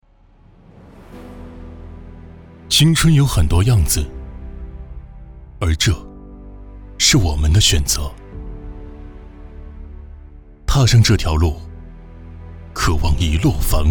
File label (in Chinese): 男国语, 旁白; 星光不负